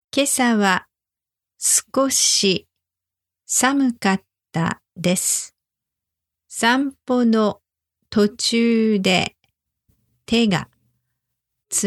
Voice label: walk cold slow speed, Japanese